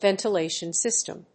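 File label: "VENTILATION SYSTEM", English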